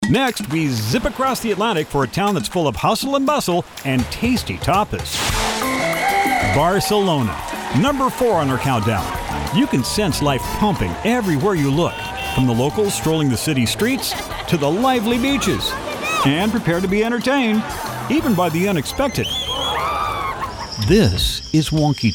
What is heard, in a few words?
In Show Narration